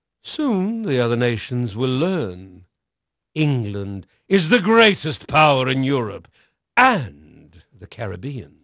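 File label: English male; Pirates Of The Caribbean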